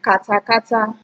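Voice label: "katakata", Nigerian Pidgin, female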